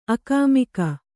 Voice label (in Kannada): "ಆಗಾಮಿಕ", Kannada